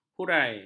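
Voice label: "hurai", Malay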